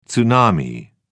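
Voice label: "tsunami", British English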